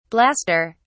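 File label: "blaster", British English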